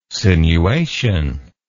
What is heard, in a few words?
"sinuation", words